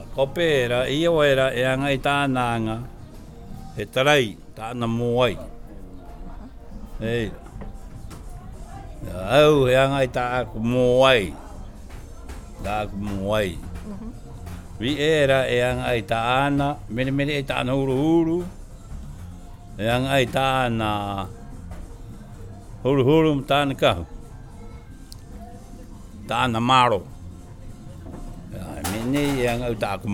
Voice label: rapa nui